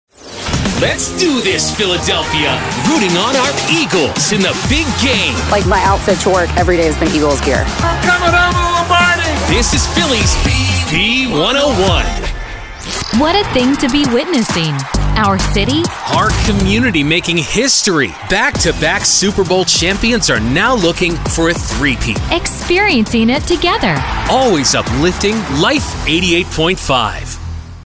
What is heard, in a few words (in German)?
Radio Jingles und Liners Breites Publikum, native; NATIVE PROFISPRECHER